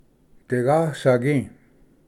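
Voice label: "digasvgi", Cherokee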